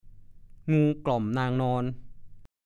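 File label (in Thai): "งูกล่อมนางนอน" ภาษาโคราช; งูแมวเซา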